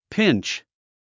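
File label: "pinch", English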